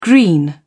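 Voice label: "green", British English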